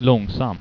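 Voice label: "långsam", Swedish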